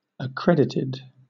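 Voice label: "accredited", British English; pronunciation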